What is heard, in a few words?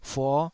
"fuo5", Taiwanese syllable